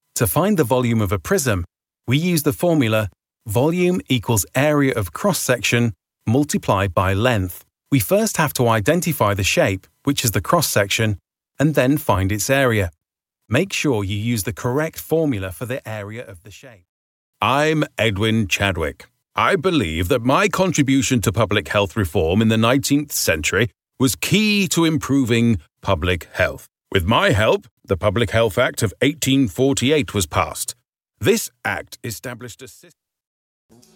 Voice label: e-learning